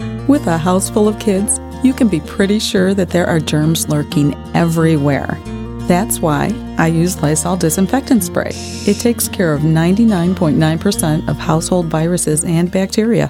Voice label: Friendly Snarky